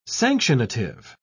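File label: "sanctionative", British English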